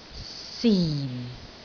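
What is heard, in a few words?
"sin4", Cantonese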